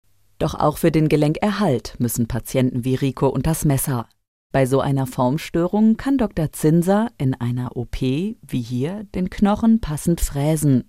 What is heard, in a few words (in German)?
TV Doku Medizinisch